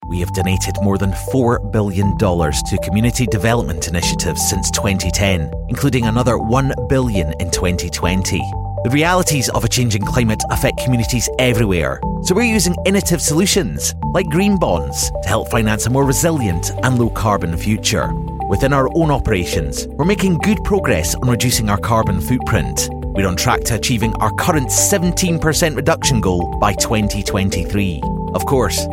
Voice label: Scottish voice corporate